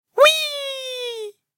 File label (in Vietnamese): tiếng uiiiiiiii meme